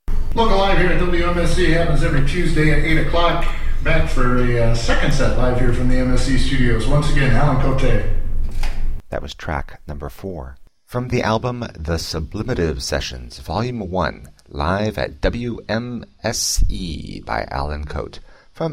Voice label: Station Break spoken; The Sublimative Sessions Vol 1 - Live at WMSE